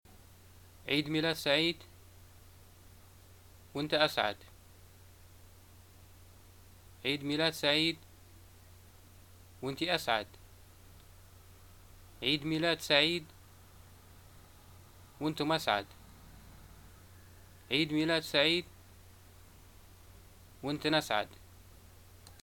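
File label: "greeting happy birthday", Libyan Arabic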